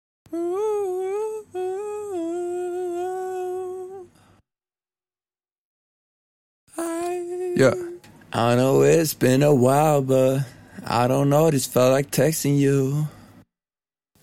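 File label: Hip Hop rap sing 72 Bm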